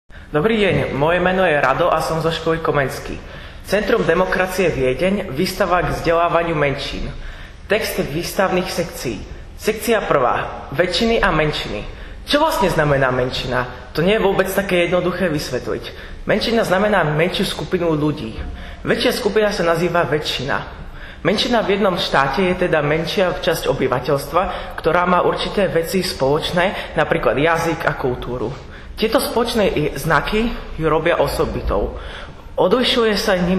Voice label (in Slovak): slovensky; Station 1 mehrheiten minderheiten